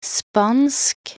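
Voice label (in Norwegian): "spansk", Norwegian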